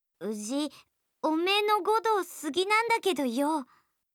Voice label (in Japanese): 好きです 山形; 律子